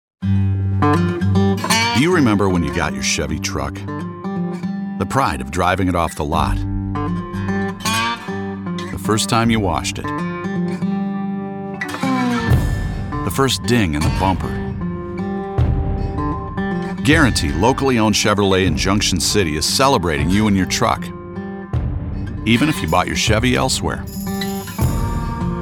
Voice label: Commercial Deep Announcer Friendly Voice over